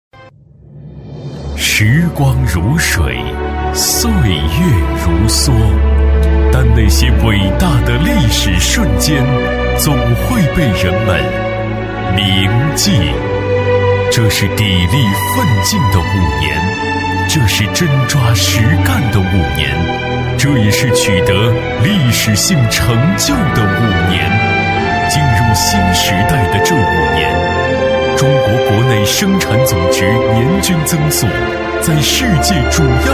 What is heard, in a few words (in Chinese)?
男 大气宣传片 大气朗诵抒情; 硬朗力度; 大气浑厚; 厉害了我的国